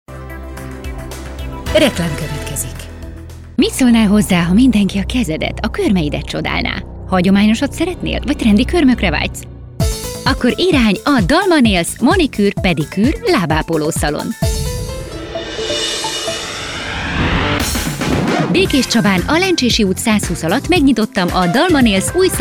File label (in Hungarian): Reklam